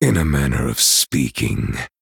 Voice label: Male Night Elf greetings

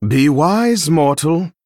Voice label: Male Dragon Idle

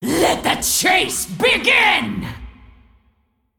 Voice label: Female Dragon Undead

